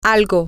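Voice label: something, Spanish, female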